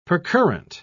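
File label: "percurrent", British English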